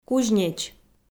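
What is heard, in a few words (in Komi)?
"кузнеч", Komi-Zyrian, female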